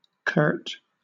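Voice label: "curt", British English; pronunciations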